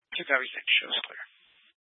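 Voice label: Fire Dispatch, scanner audio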